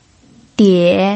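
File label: "die", Mandarin Chinese, third tone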